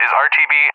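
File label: "is RTB", English; DCS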